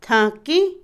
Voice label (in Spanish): desgranar, Otomí, mujer; INALI